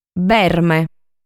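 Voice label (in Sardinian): "Berme", Sardinian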